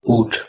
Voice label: "ut", Proto-Germanic